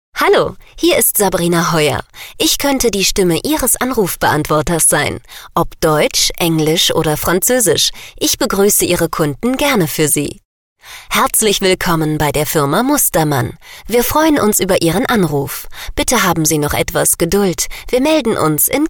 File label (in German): Sprecher Telefonansagen